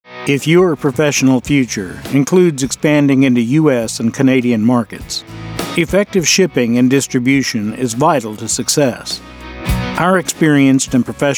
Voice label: American Male Voice, On Hold Messaging, Accent: Standard U.S. or Southern U.S, Tone / Style: Warm, informative; Tone / Style: Warm, informative, knowle